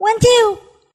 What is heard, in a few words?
1 2 cartoon; numbers and letters